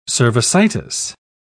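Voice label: "cervicitis", British English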